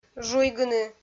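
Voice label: "жуйгыны", Komi-Zyrian, female; audio dict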